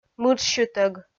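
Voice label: "мыджсьытӧг", Komi, female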